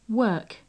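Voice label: "work", British English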